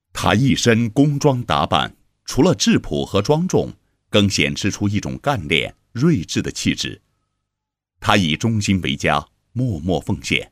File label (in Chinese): 男 人物; 开封发电分公司燃料质量检验中心主任